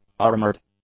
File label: "armored", English; adjectives